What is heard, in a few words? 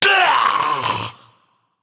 Male Naga Death